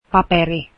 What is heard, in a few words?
paper, finnish